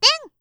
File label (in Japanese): "テン", カウント